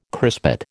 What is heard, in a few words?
"crispate", American